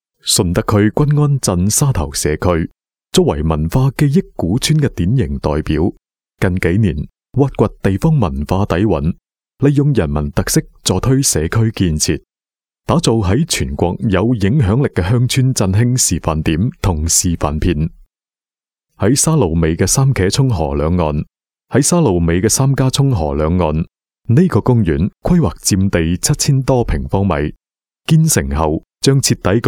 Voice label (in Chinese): 粤语 沙头村, 男